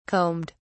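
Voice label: "combed", British English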